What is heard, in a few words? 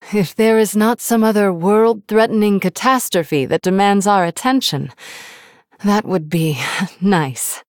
Female Human; Jaina; If Broll Destroyed Plus2